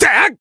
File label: Voice attack jp; dennie